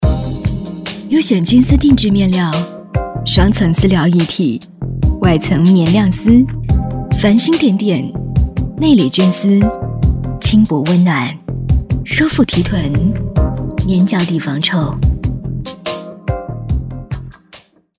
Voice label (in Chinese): 品质广告